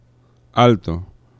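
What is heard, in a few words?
"alto", Spanish; laboratori fonetica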